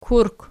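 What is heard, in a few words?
"kurk", nominative, Archi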